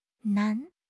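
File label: "nan2", Mandarin Chinese; HSK3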